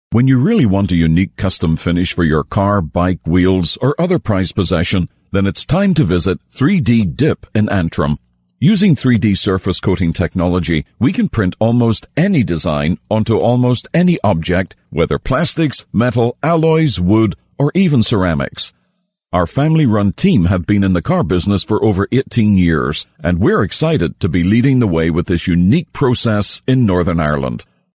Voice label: 英式男声

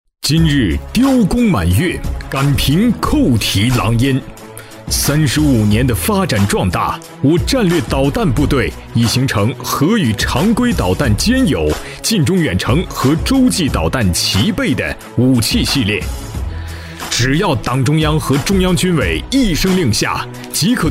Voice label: 男-部队汇报-强势有力, 浑厚大气 大气浑厚

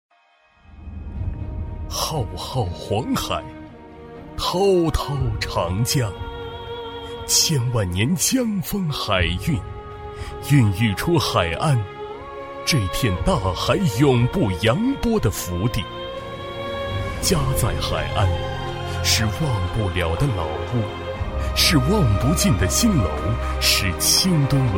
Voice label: 男 宣传片 非常昂扬大气; 浙江返乡专题片; 激情大气; 舒缓抒情

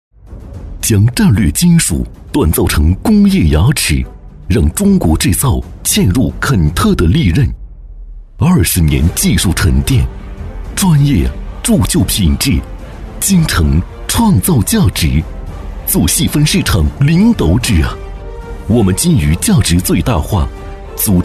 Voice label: 男 企业宣传 硬朗有力; 钻掘合金生产线

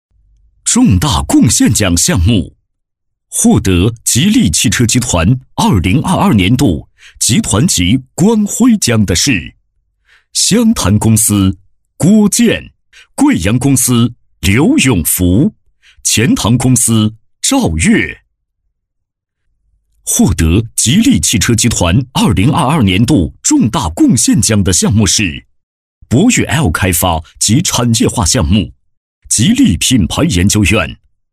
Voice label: 男 颁奖 激情有力; 吉利颁奖; 激情力度

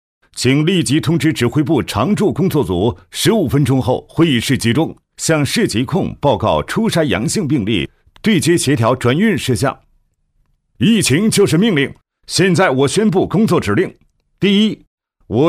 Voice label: 男 角色配音 区长 30-40岁; 大气稳重

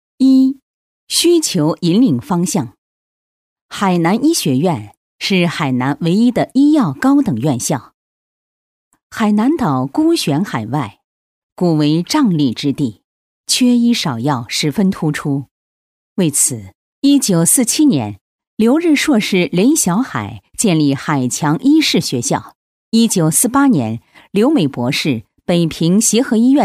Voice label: 女 医院宣传 大气沉稳; 海南医学院

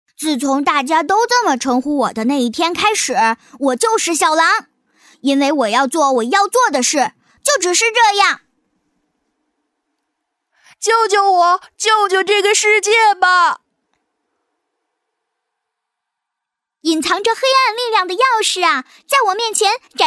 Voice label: 女 动画 小男童 女童; 可爱

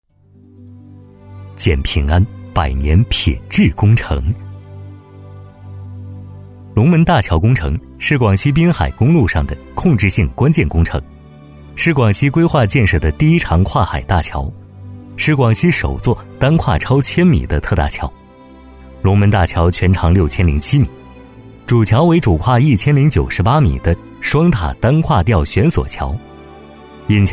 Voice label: 男C9-工程项目-年轻稳重, 年轻质感 大气浑厚; 《龙门大桥》; 男C9-工程项目《龙门大桥》-年轻稳重

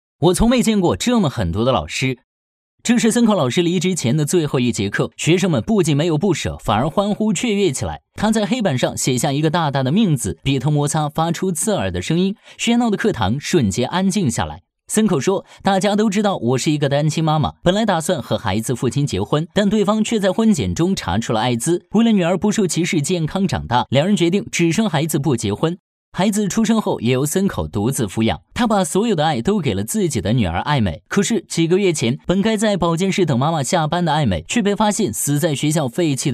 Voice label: 男, 电影解说, 自然随和, 声音百变 年轻时尚

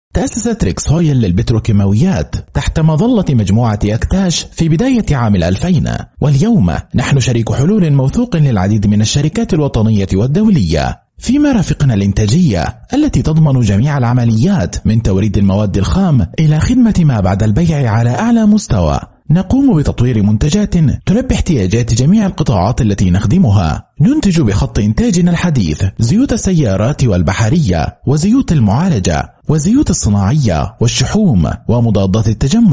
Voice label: 阿拉伯语男声

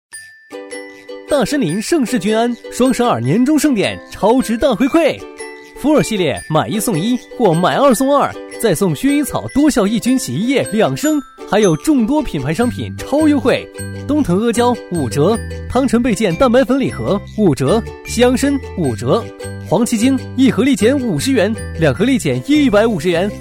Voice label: 男 促销广告 活力; 大参林; 轻松活泼

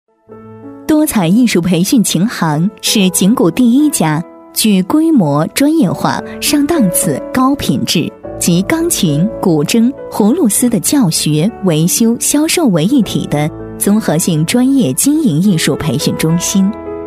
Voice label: 女 学校专题 培训中心介绍-抒情叙述, 舒缓抒情

